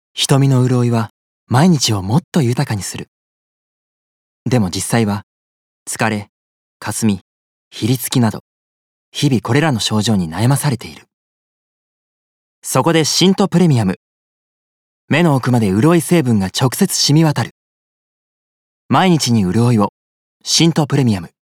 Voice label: 化粧品CM

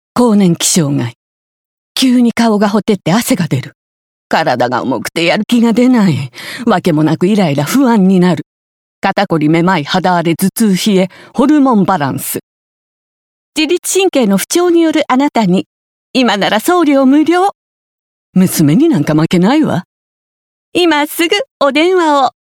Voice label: サプリCM

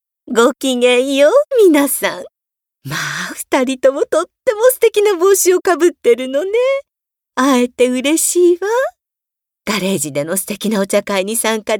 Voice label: セレブ老人

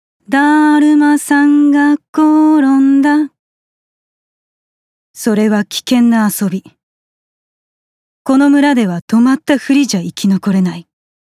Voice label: 映画CM

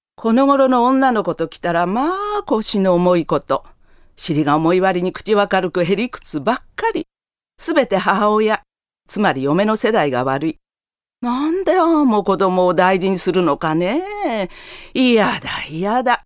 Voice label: 愚痴を言う人

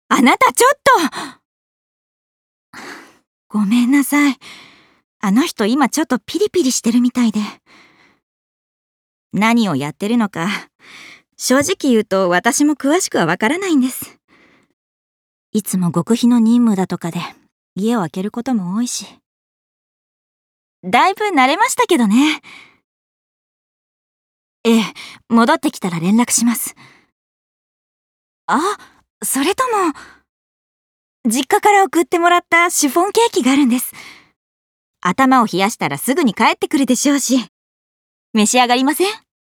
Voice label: 夫を心配する妻

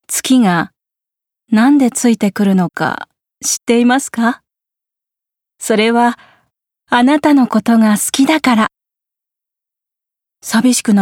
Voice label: 語りかけ風ナレ

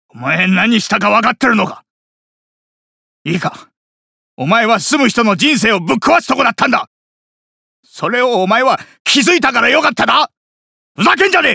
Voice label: 人情味のある親方

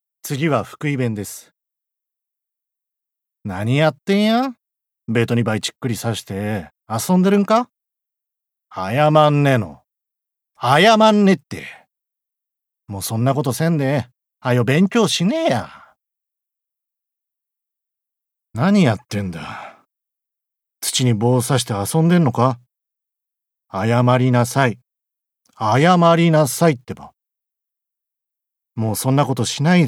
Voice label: 方言

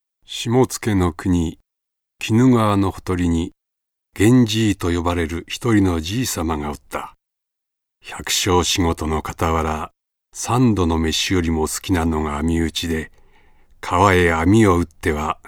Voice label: 朗読

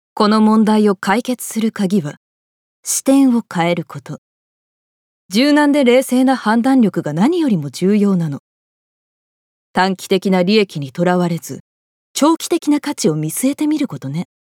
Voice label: クール40代

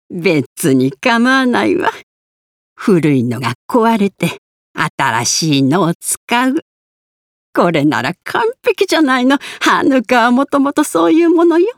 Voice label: 祭りを大切に思う老女

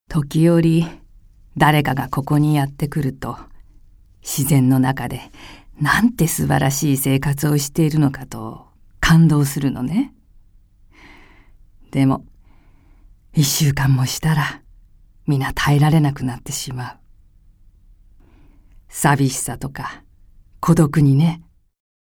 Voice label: 静かに語る

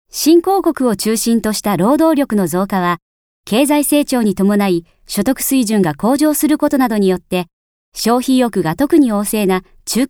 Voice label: 解説ナレ

